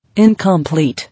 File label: "Incomplete", English